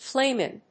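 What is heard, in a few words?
"Flamen", English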